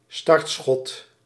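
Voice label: "startschot", Dutch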